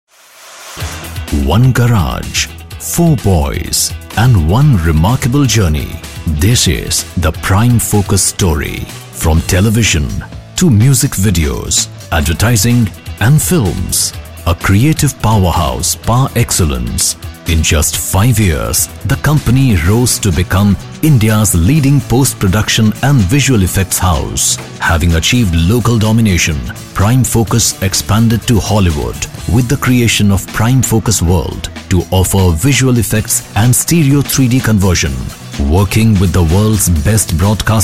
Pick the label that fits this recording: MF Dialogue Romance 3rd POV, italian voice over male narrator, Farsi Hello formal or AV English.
AV English